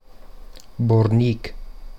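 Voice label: Brunico dialect; Brunico Mundart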